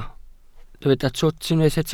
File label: "twt", Welsh